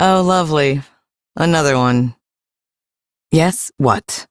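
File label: Ambient Female Sweeper, Dialog; Duviri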